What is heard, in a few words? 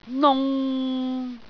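"nung6", Cantonese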